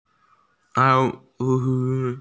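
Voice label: "tiger", Mandarin; lao3hu3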